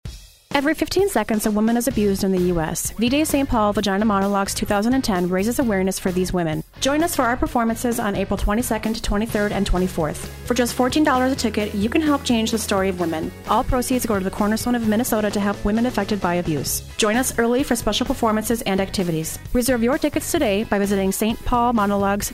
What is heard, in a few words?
radio spot